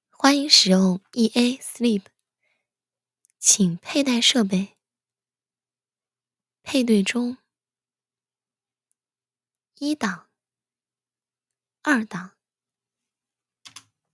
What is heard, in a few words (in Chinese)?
广告